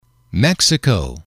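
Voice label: "mexico", English, male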